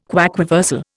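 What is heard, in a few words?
"quaquaversal", Indian English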